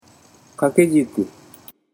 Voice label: "kakejiku", Japanese